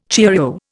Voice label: "cheerio", Indian